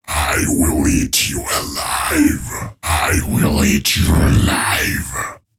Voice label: Scary Voice I'll Eat You Alive

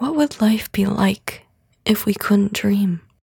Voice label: Dream Female Spoken Word

